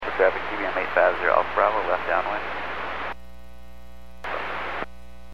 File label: Aviation 122.7; KSTE